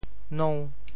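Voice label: "nou5", Teochew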